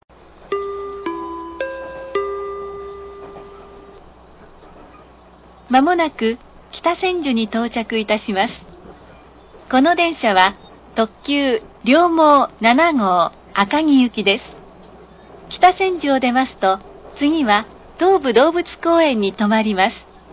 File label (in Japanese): 北千住到着前, 車内放送; オリジナルチャイム; 特急 りょうもう７号 赤城行きりょうもう7号。2007年6月; tobutetsudo